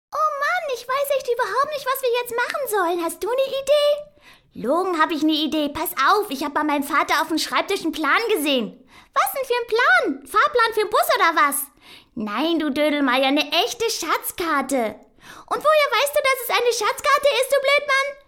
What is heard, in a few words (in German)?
Trickstimme